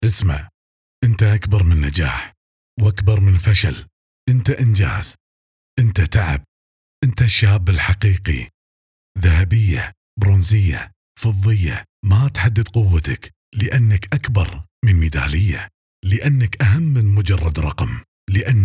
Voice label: kuwaiti; vocalglobal